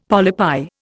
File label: "polypi", Indian; Dictionary